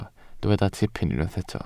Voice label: "tipyn", Welsh

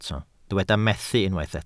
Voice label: "methu", Welsh

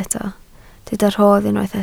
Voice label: "rodd", Welsh

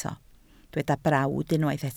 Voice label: "brawd", Welsh

